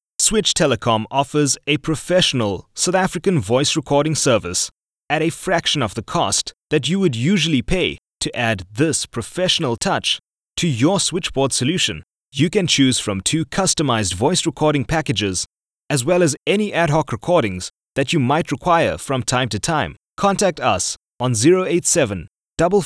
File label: On Hold Messages; Switch Telecom; professional South African voice recording (IVR) service